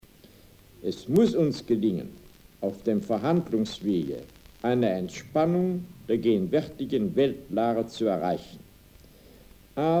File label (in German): regiolekt; sprache